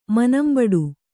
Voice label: "ಮನಂಬಿಡು", Kannada

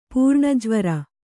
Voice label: "ಪುರಾಣಜ್ವರ", kannada

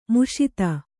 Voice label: "ಮುಷಿತ", Kannada

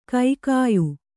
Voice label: "ಕಗ್ಗಾಯಿ", kannada

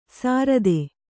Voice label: "ಸಾರದೆ", kannada

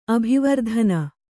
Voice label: "ಅಭಿವರ್ಧನೆ", kannada

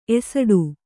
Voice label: "ಎಸಡು", Kannada

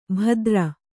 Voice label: "ಭದ್ರ", Kannada

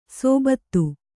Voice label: "ಸೋಬತ್ತು", Kannada

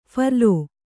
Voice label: "ಫರ್ಲೋ", kannada

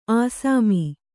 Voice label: "ಅಸ್ಸಾಮಿ", Kannada

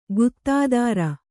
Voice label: "ಗುತ್ತಾದಾರ", Kannada; guttādāra; noun; = ಗುತ್ತಿಗೆದಾರ.

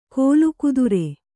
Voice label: "ಕೀಲುಕುದುರೆ", Kannada

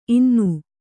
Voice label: "ಇನ್ನೂ", Kannada

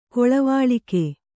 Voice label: "ಕೊಳವಾಳಿಕೆ", Kannada

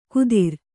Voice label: "ಕುದಿರ್", Kannada

